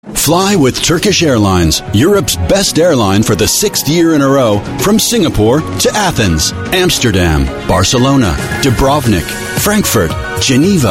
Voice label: US English Voice Reel, Male